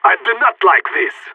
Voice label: scared emote; player vo phoenix

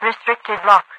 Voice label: radio voice, overwatch; restricted block; Garry's Mod; hl2

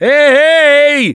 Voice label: lost call, russian; Gambler

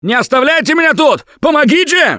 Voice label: Ledge Hang End, russian, Survivor Voice; Gambler

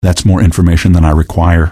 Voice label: answer, male; Garry's Mod; hl2

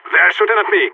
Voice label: radio taking fire; player vo phoenix

